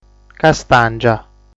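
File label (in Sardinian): "Castàngia", Sardinian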